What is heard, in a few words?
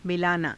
"milaanaa", Hindi